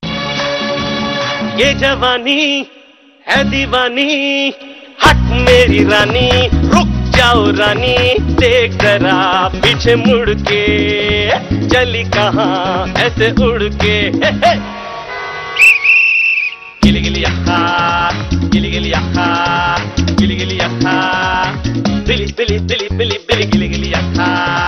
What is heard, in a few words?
Ad; Mentos Jawani